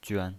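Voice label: "juan", neutral tone, pinyin voice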